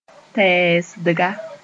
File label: "tɛɛsdga", Moore